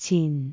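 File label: "cin4", Cantonese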